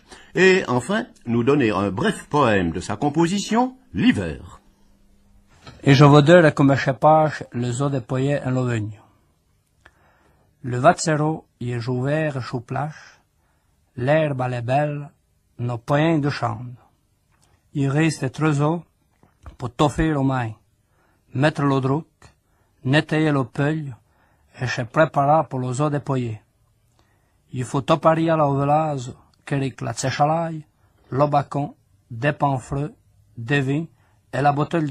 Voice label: patois de St Martin; Heremence; patois valaisans; La montee a lalpage de St Martin

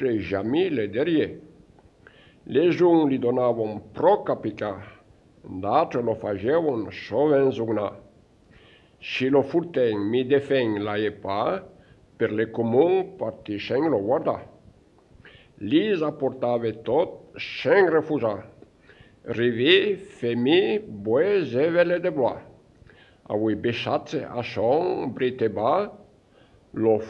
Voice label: patois de St Luc Anniviers histoire; patois valaisans; Notre brave mulet

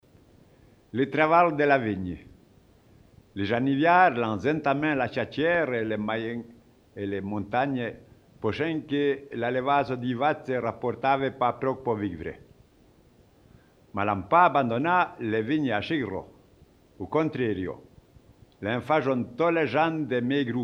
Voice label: patois de St Luc Anniviers histoire; patois valaisans; Le travail de la vigne

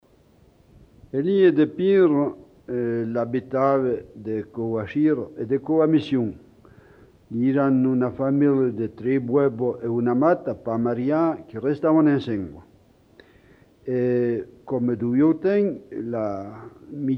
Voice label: patois d'Ayer Anniviers histoire; patois valaisans; un sage

